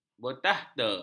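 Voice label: "bertakhta", Malay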